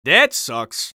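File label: scout taunt rps lose, male voice; taunts; tf2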